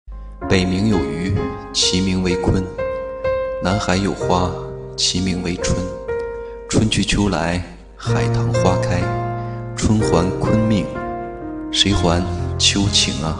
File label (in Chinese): 旁白 片段; 大鱼海棠